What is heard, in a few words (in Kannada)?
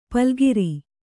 "ಪಲ್ಗಿರಿ", kannada; palgiri